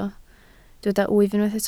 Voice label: "wyf", Welsh

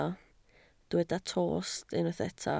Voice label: "tost", welsh

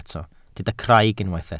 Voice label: "craig", Welsh

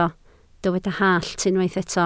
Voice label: "hallt", Welsh

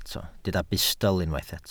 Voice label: "bustl", welsh

